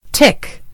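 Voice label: "tick", American English; gcompris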